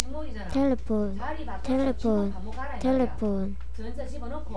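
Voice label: "telephone", spoken word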